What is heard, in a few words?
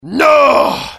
anger, dialogue IT; mohaa; attack